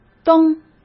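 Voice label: "蹬", Mandarin Chinese; chinese-flashcards; hsk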